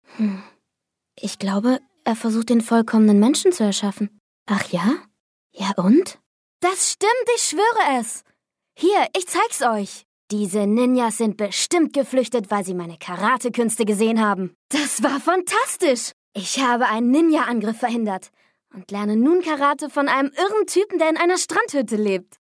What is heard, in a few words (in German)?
Junge Stimme